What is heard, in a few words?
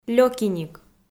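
"лёкиник" Komi, female; kpv audio dict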